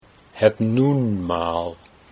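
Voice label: nou en maal, Dutch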